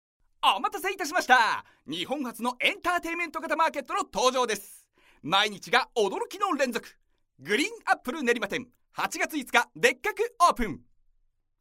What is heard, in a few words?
narration